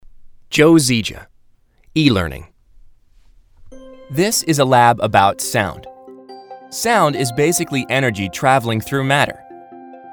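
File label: English US, friendly; EngUS; Fremdtake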